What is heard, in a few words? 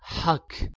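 "h2ak", Proto-Indo-European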